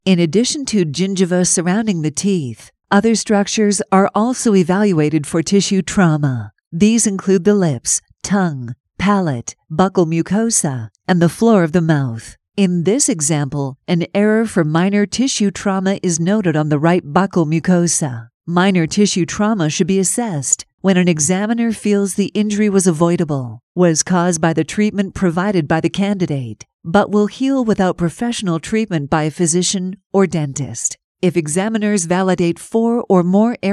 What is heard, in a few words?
Instructional